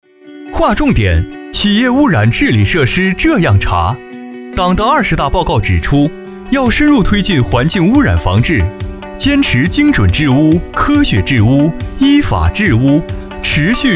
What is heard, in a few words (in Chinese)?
男 MG 年轻活力 企业污染